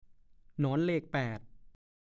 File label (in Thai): "หนอนเลขแปด", ภาษาโคราช; การเล่นอย่างหนึ่ง; ขีดวงกลม ๒ วงติดกันเป็นเลข ๘